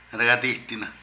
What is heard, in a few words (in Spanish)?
Temblar, Zapoteco de Petapa; Cuerpo; Vocabulary